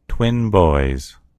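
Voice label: "twin boys", American English; gcompris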